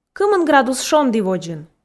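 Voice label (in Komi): кымын градус шонді водзын, Komi, female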